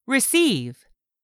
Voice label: "receive", English; 3000 words